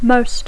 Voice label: "most", English